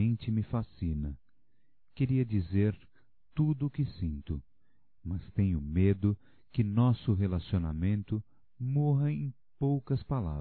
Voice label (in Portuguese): Obrigado por Você Existir Masculino, Telemensagens